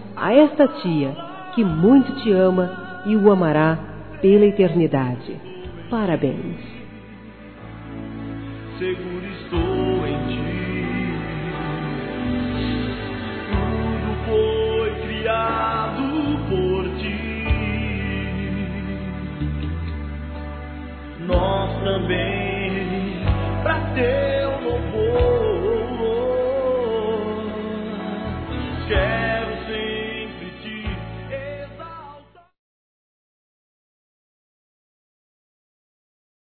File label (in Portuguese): Aniversário Sobrinho Feminino; Aniv Familiar